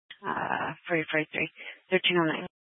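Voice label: Dispatch, Scanner audio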